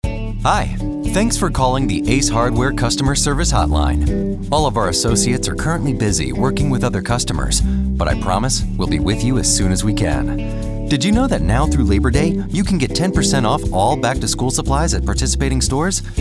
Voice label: Telephony